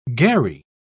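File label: "gharri", British English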